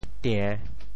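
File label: "tie~3", Teochew; 潮州; 穿鞋着袜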